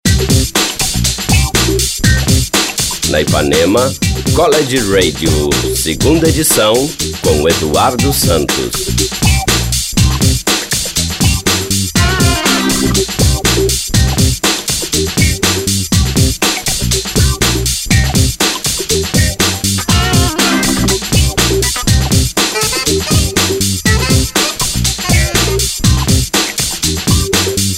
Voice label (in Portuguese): spot; vinhetas 2005; IpanemaFM